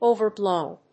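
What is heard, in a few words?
"overblown", English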